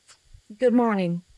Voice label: Voice Acting, es, F0